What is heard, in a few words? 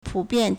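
"pu3bian4", Mandarin Chinese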